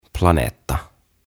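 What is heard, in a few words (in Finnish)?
"Planeetta", suomeksi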